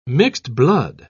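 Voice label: "mixed-blood", British English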